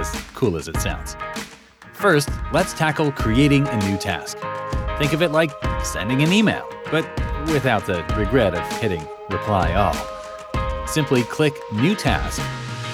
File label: eLearning Tutorial Conversational